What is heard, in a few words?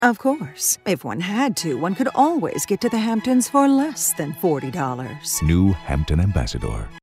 Snooty Lady